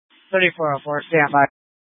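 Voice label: Incident Tactical, scanner audio recording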